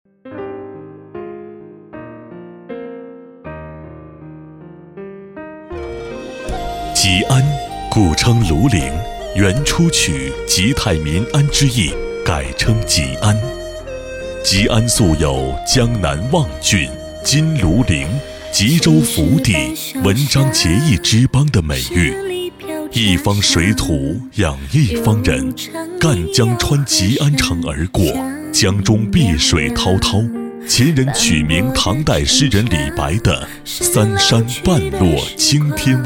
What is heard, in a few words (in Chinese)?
男60号 专题 大气景点; 吉安; 交广主播